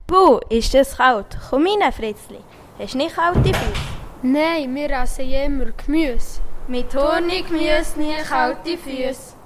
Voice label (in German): Gemüse Werbung; Hurni; Golaten